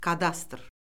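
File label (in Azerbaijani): "kadastr", Azerbaijani; Orfoqrafiya Lüğəti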